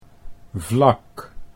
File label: "vlak", Dutch